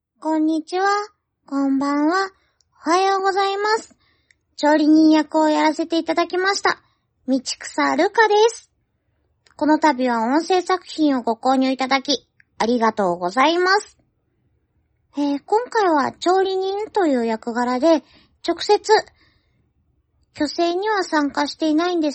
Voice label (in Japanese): 料理人役